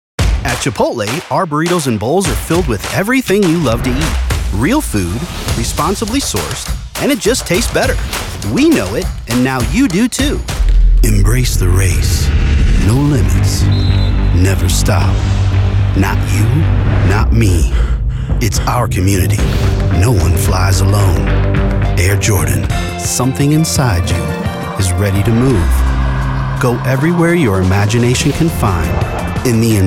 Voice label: Commercials

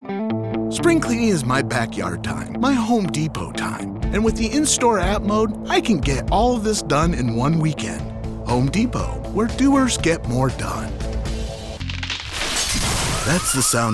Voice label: Commercial

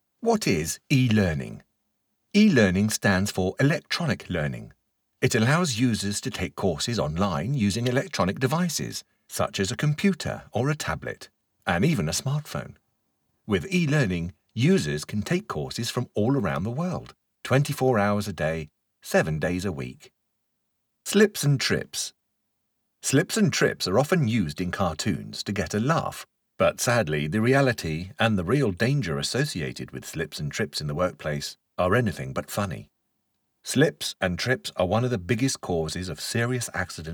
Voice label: eLearning; eLearning Reel

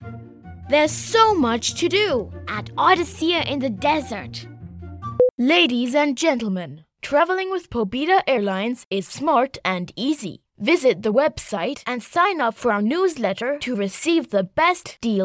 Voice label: BOY VOICE

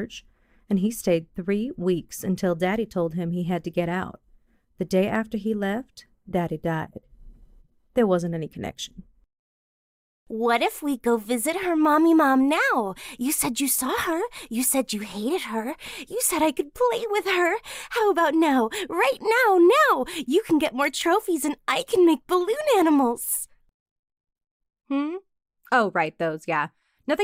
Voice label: character

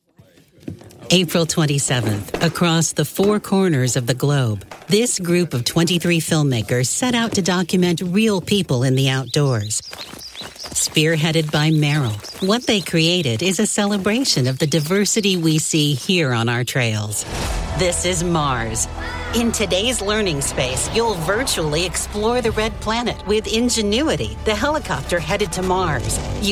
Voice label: Narration

